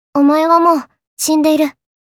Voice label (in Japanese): "Shinderu", Kawaii Voice Reactions